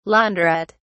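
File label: "laundrette", British English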